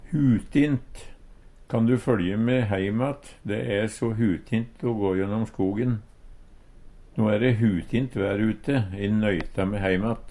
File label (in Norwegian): hutin, Numedalsmål; No e de eit hutint vær ute, e nøyta me heimatt.